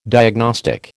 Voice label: "diagnostic", American